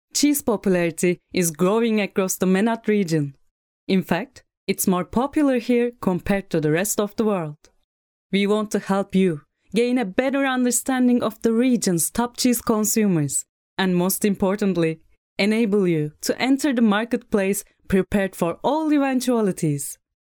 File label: female turkish voice over english